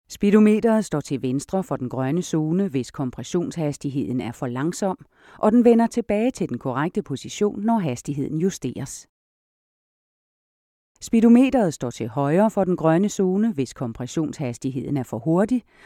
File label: danish corporate videos